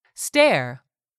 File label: "stair", English; 3000 words